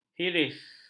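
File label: "hiris", Malay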